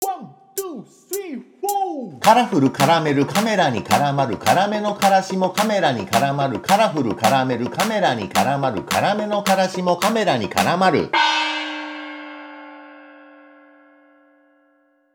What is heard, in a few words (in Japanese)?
早口言葉リズム（中級編）